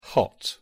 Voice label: "hot", en